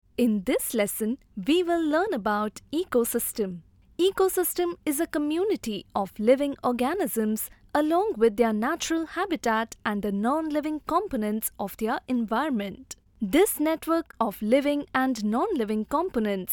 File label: eLearning/Training Female English (Indian)